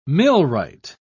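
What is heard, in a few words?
"millwright", British English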